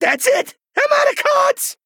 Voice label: Male Goblin, No Cards

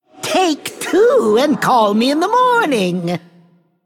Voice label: Male Forsaken Play

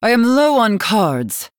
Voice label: Female Night Elf Low Cards

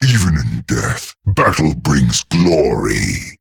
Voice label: Male Nerubian Idle; Anubarak

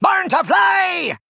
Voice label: Male Goblin Attack

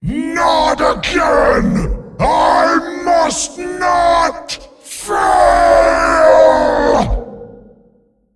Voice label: Male Demon Victory

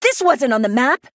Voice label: Female Human, Attack

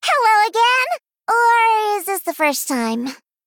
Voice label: Female Gnome

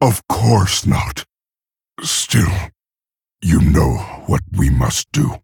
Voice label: Male Orc; Thrall

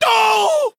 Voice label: Male Dwarf Death

